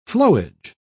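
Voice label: "flowage", British English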